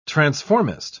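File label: "transformist", British English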